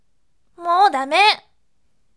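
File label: "moudame", bright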